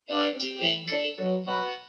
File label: your doing great, robot